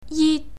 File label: "jit", Cantonese